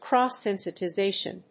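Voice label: "cross sensitization", English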